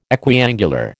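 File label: "equiangular", American English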